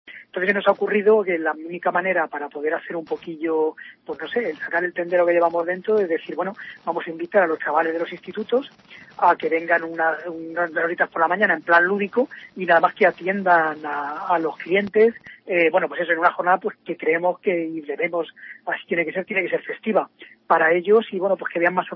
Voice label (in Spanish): noticias